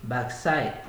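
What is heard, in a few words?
"backside", Nigerian Pidgin, male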